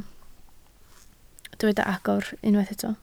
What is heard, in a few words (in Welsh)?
"agor", Welsh